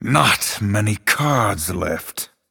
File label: Male Night Elf Low Cards